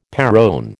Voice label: "perron", American

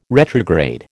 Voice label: "retrograde", American

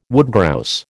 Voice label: "woodgrouse", American

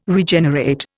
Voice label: "Regenerate", Indian

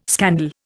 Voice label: "scandal", Indian

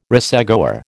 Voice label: "racegoer", American

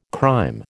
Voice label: "crÊme", American

